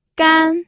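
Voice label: "gan", pinyin, first tone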